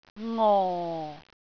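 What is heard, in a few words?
"ngo4", Cantonese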